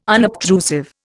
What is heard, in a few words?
"unobtrusive", Indian